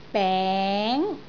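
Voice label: "beng2", Cantonese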